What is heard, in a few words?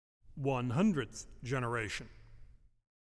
Announcement